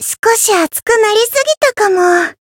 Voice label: win jp

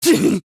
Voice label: Voice damaged; shigure

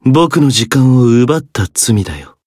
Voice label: win jp; zeviro

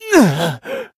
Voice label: damaged, jp

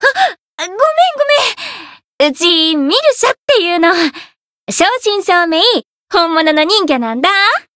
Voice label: Voice story, jp; millecha

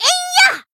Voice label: attack jp

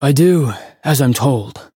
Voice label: chant

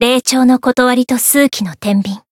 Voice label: Voice title, jp; isuka

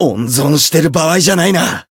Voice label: voice encount fear jp

